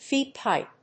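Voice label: "feed pipe", English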